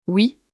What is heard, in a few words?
"Oui", French; kikitorerukedohanasenai